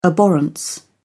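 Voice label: "abhorrence", British English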